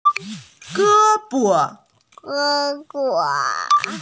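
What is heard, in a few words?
"arm", Mandarin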